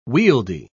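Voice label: "wieldy", British English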